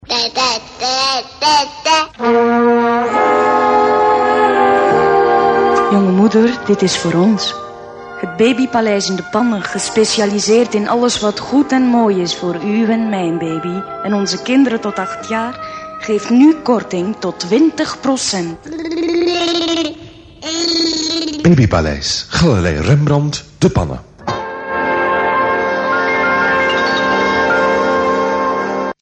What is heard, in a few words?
commercial